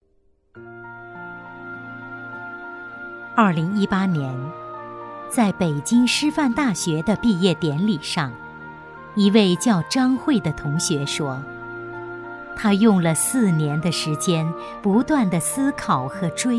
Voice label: 女 专题; 何以为师

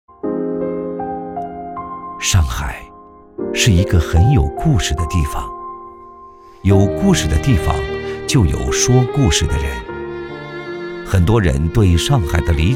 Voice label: 男 走心旁白 上海

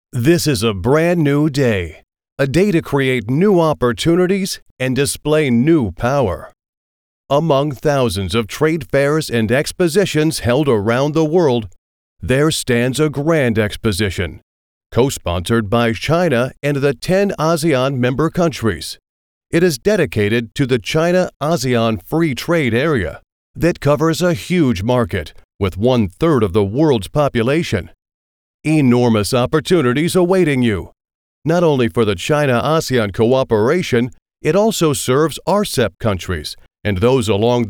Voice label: 英文配音; 东博会

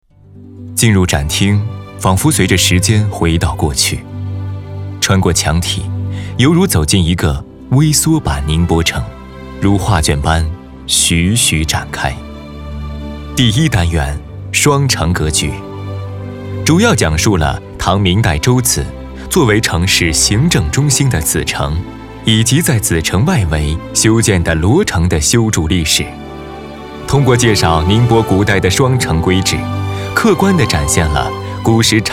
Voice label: 男 宣传片 讲述感; 宁波博物馆展厅宣传

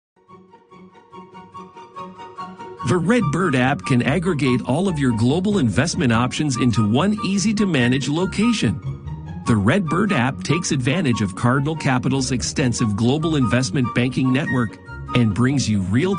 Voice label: 美式外籍男, 产品解说

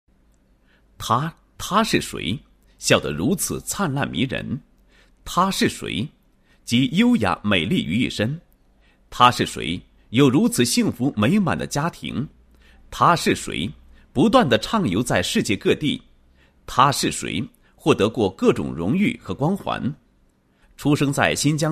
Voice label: 人物, 短片解说, 国语男声配音; 高音经济型; 高级业务经理吴女士成长

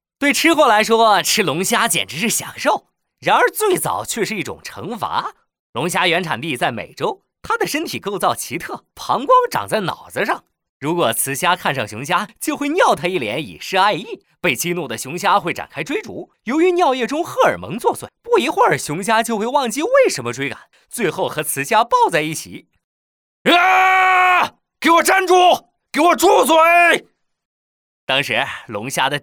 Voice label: 男 夸张动画 食材

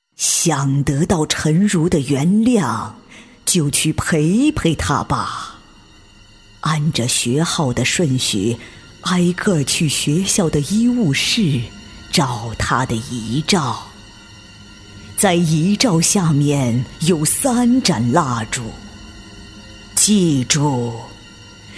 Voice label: 恐怖屋 老太婆

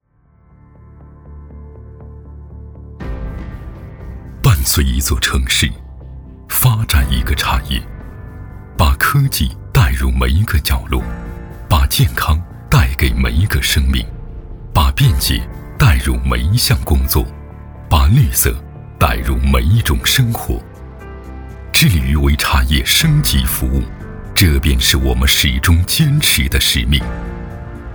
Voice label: 男 宣传 企业 片 走心深沉; 中宝航

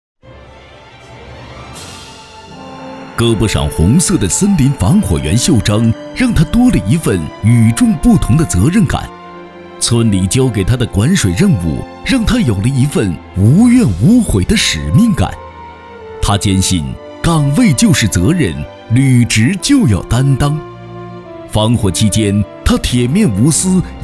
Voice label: 男 感动人物颁奖风格 优秀共产党员颁奖 颁奖

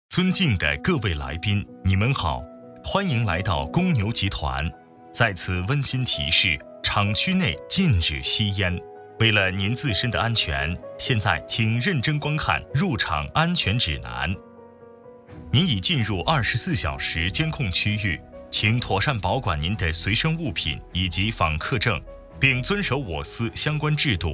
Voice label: 男 中文 温馨提示

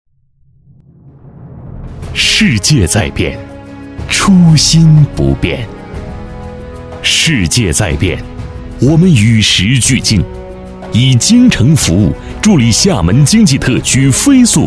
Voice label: 男 大气呼吸感

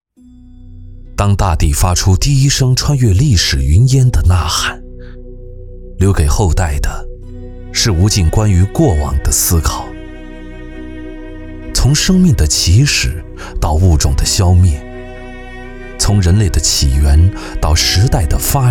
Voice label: 男 独白 讲述感

